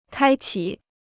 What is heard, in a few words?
"开启", Chinese